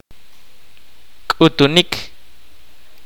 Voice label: kaqchikel; To consult witch a diviner